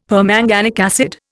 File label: "permanganic acid", Indian; Dictionary